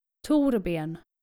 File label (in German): "thorben", skandinavische jungennamen